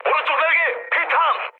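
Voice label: Korean right wing mood med, speech; War Thunder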